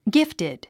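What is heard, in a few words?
"gifted", English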